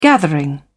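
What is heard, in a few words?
"gathering", British English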